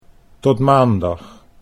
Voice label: tot maandag, Dutch